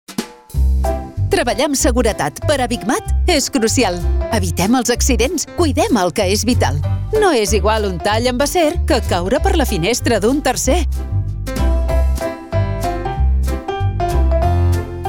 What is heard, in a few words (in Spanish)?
Cuña General Cat; BIG MAT; SOCIOS CATALAN